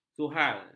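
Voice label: "zuhal", Malay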